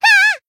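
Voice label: Voice damaged, Japanese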